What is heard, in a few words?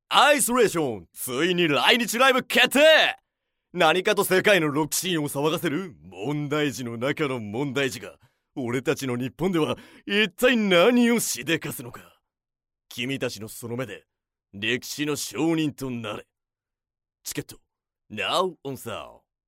narration